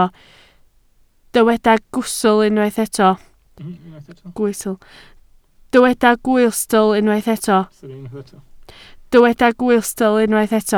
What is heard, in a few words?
"gwystl", Welsh